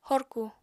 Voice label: "horku", apatani